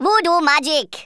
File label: "amazing", Rasta